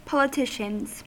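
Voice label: "politicians", US English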